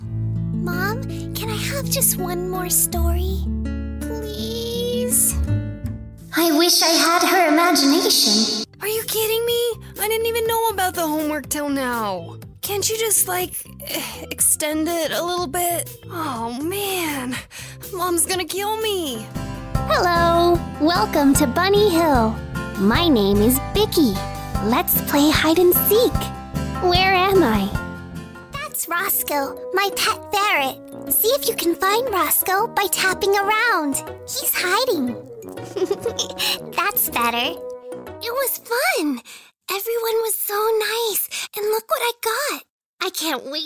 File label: Childrens Voices